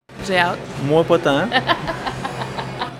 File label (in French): VOX POP; TRANSPORT; DERNIER JOUR TERMINAL PASCAN; dernier appel pour les passagers du terminal de Pascan